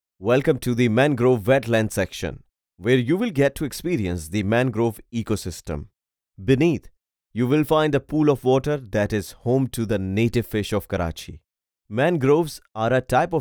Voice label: English, Audio Guides